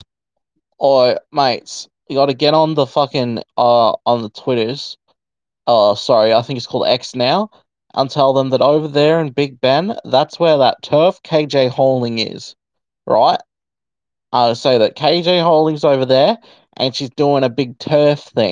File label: voice message